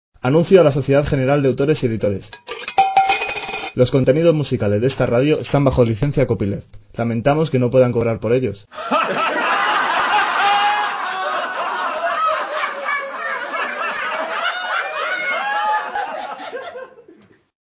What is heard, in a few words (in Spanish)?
anuncio hombre